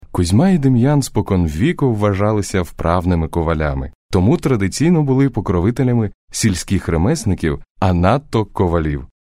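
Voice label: locutor ucranio ukrainian voiceover, Ukrainian male voice over; locutor ucrania, Ukrainian voice over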